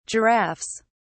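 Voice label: "giraffes", English, British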